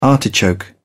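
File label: "artichoke", English, British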